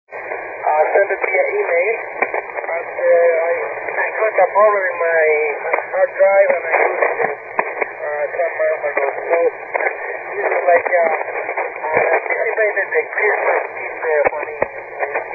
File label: 40m ssb